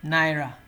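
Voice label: "naira", Nigerian Pidgin, male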